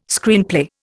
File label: "Screenplay", Indian